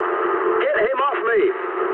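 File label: English aircraft damaged mood med; speech; War Thunder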